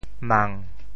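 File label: "mang7", Teochew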